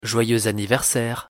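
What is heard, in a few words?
pronunciation "joyeux anniversaire", French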